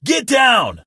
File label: "get down", Male; war; Kenney's Sound Pack; Voiceover Pack